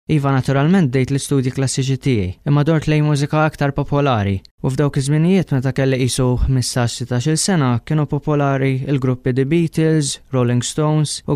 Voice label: Maltese

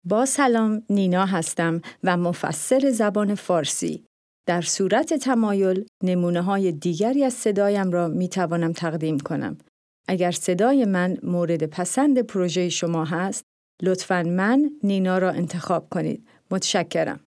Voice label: Farsi

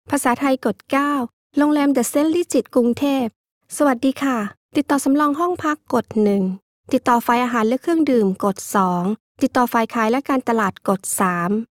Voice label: Thai, IVR

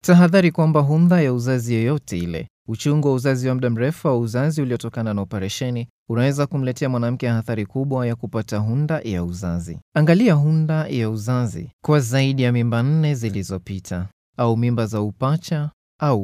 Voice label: Swahili Male

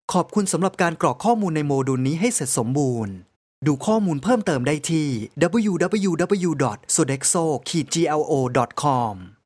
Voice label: Thai elearning

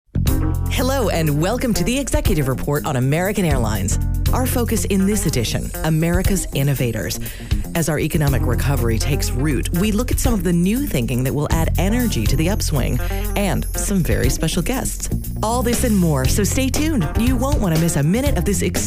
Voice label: US narration

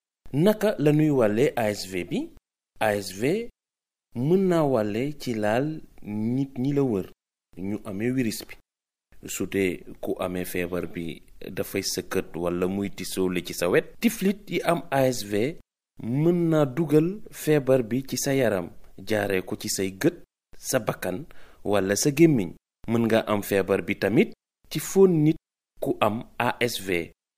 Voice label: Wolof

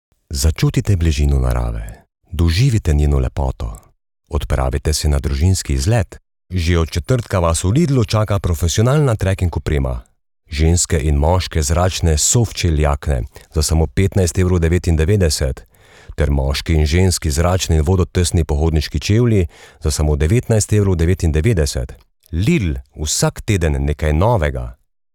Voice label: Slovenian

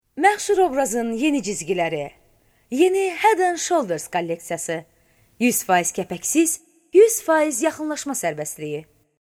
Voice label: AZERI

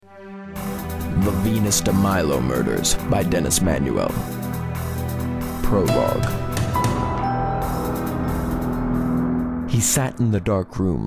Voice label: US, book

